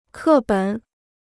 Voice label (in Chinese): "课本", Chinese